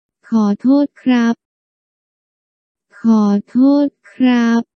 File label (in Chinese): 對不起男生